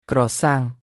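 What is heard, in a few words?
"ក្រសាំង" Khmer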